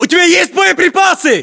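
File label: status check, battlechatter, Russian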